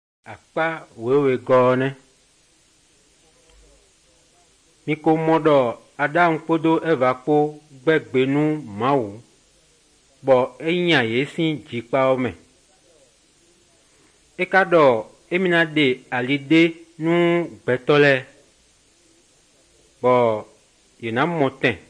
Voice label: good news, fon fongbe bible drama